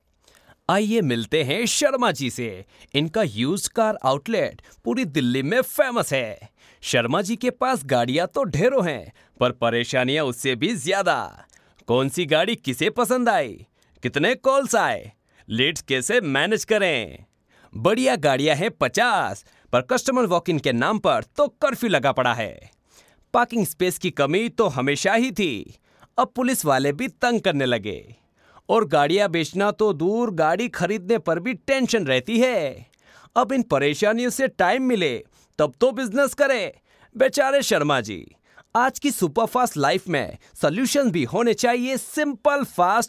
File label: MALE